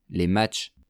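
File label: "les matchs", French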